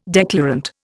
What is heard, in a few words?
"declarant", Indian